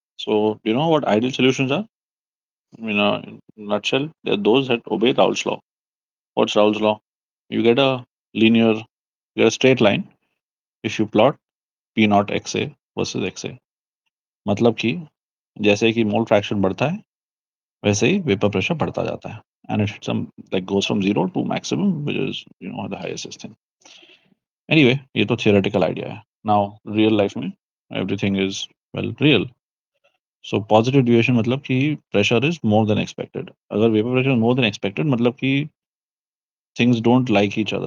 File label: voice message; Deviations